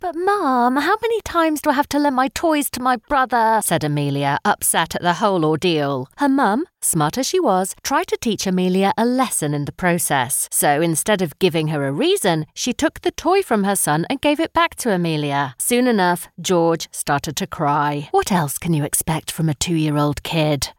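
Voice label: character, British Voice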